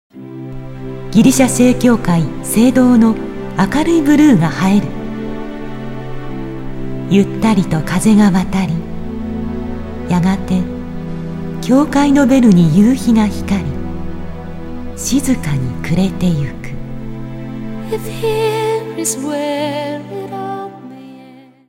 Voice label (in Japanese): ボイス, ギリシャ